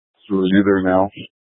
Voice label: Public Transit System Light Rail Security, scanner; Regional Wireless Cooperative (RWC); Valley Metro; Thompson Peak High